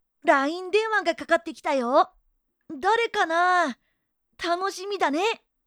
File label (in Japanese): LINE着信, かわいい